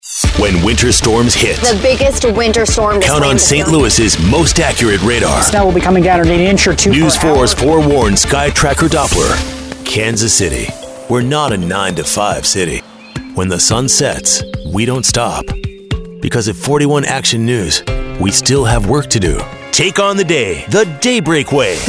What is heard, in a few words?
tv affiliate men